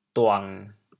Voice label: "duang1", chaoyang